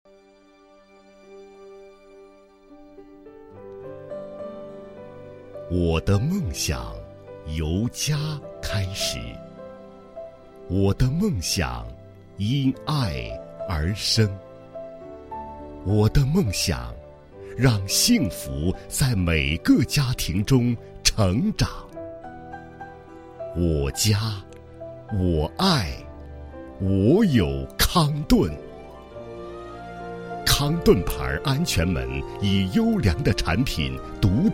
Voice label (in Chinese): 广告 我的梦想 安全门 抒情, 国语男声配音; 康盾安全门